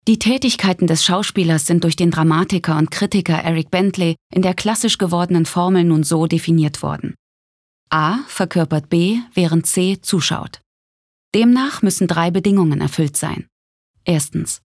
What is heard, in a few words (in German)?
Neutral; Schauspieler